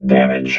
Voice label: "damage", robot vox

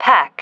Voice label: "pack", female

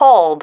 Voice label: "hold", female

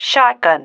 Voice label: "shotgun", female

